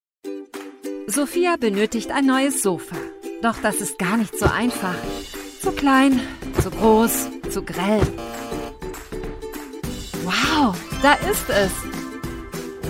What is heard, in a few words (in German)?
erklaerfilm moebel jung narrativ; 3c gruppe moebel